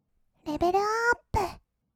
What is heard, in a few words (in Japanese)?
レベルアップ, kawaii voice; ASMR; 色々なワンショット